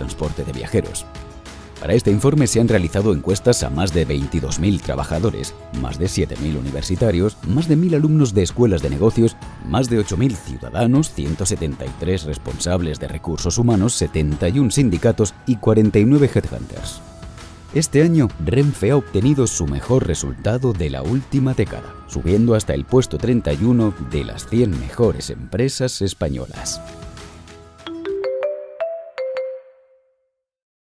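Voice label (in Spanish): corporativo España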